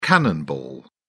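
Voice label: "cannonball", British English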